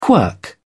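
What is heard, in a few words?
"quirk", English, uk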